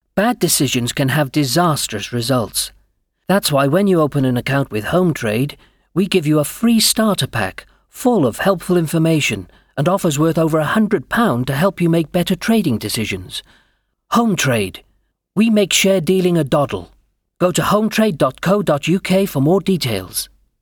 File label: Clear, Informative, Natural; Hometrade